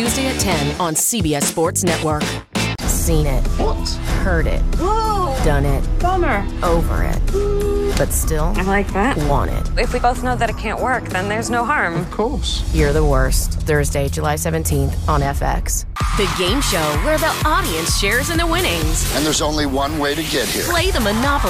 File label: promo women